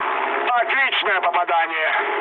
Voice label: bomb success, mood low, speech ru; War Thunder

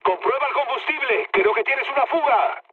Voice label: tank damaged, mood med, speech; War Thunder

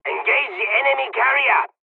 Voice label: bombing, dialogs czech; midway

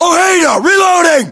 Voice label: inform reloading, US

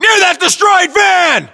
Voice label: landmark near van destroyed, battlechatter us

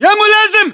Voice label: rank lieutenant, battle chatter, english

